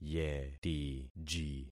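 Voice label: "yetiji", concatenated syllables; Affixing experiment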